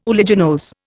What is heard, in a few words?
"uliginose", Indian